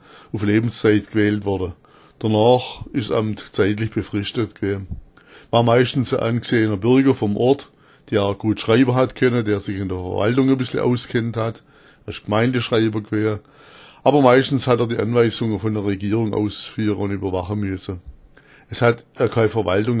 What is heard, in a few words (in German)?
schultes, schwaebisch